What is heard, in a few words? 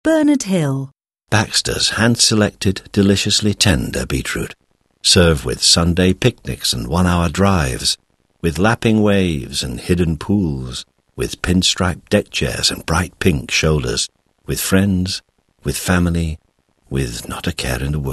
Commercial